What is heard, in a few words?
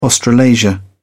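"australasia", British English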